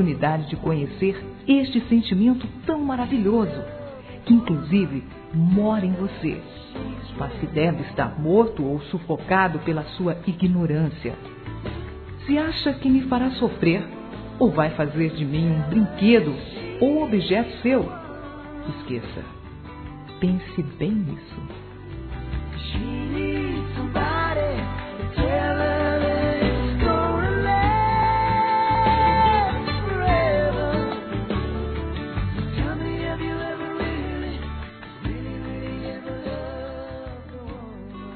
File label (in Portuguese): BRINCAR DE AMOR, Telemensagens, Amor, Fem